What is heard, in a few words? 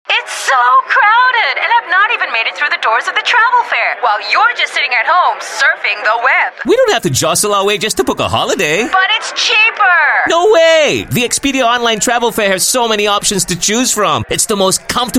Asian English; EXPEDIA TRAVEL FAIR